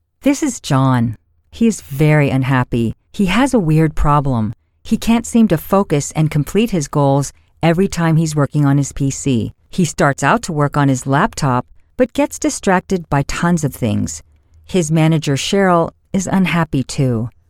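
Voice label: English US, narration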